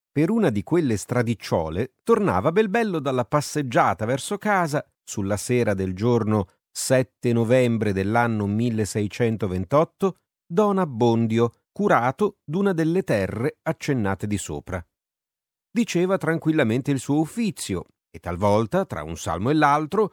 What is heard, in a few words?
Italian audiobooks